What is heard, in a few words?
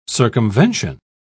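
"circumvention", British English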